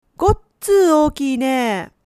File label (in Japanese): "gottsuu", woman; hogen